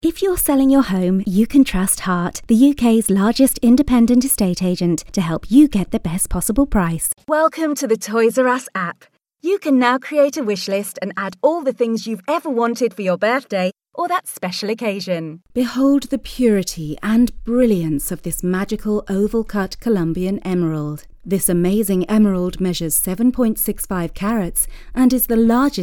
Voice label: narration

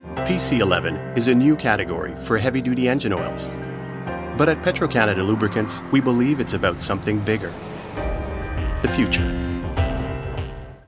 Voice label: Lubricants ENGLISH; Petro-Canada

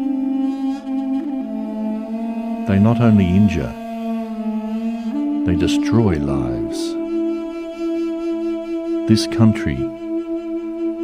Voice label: Voice Over Landmines in Cambodia

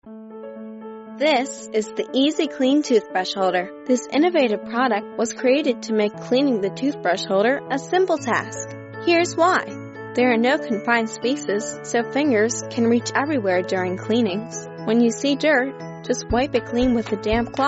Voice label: Female Narration

